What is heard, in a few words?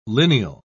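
"lineal", British English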